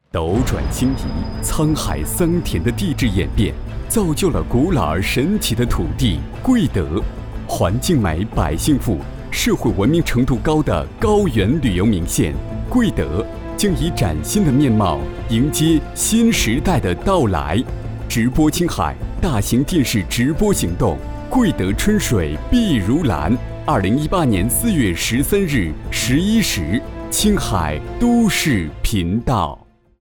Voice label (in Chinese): 讲述; 贵德